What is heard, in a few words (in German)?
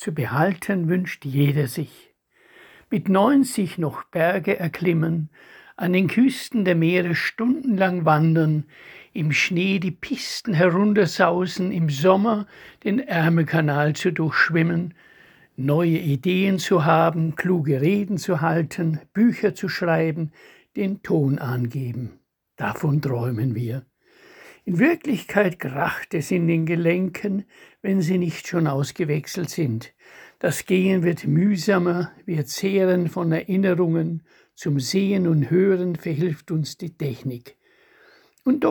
Lange leben, Lyrik, Lesung